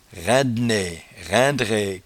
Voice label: "raindenee", patois jura

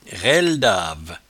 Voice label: "railedave", patois jura

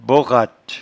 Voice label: "boratte", patois jura

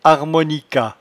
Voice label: "harmonica", patois jura

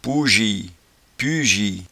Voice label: "pouejie", patois jura

